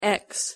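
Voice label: "x", English; pronunciation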